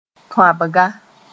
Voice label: "tõabga", Mooré; small quantity taken out

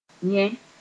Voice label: "yẽ", Mooré; in respect to

